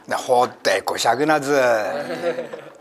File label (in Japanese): goshagu, minami yamagata